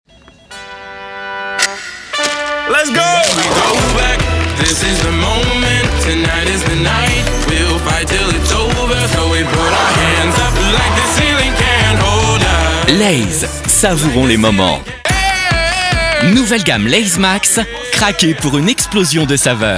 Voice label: commercial, French voice over; Lays